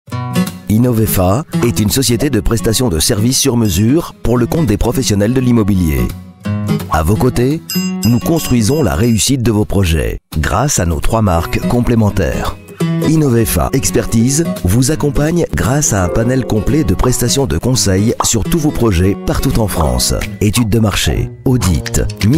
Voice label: french voice over male narrator